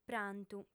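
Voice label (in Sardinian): "prantu", female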